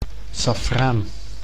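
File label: "saffraan", Dutch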